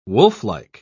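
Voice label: "wolflike", English, British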